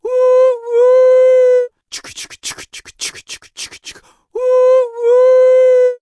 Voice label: Tauren Female Choo Choo